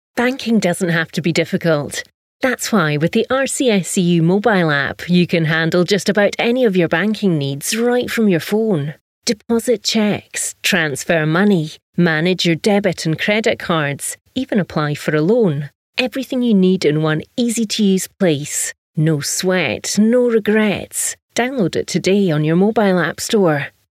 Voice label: Scottish Professional